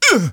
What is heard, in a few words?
pain, citizen; hl2